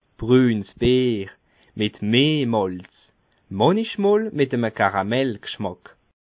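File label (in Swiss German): "brunsbier", Bas Rhin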